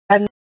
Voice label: Fire Dispatch; Phx PD Fire Dispatch; H- Scottsdale; RWC